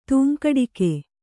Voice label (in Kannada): "ತೂಂಕಡಿಕೆ", kannada